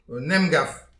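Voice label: "un emgav", Breton; petit precis de prononciation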